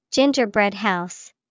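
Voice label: gingerbread house, English vocabulary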